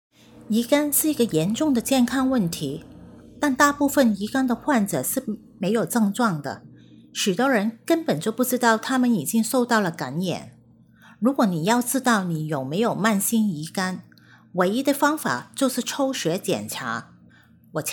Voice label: Chinese; hepatitis; TESTHepatitisCampaign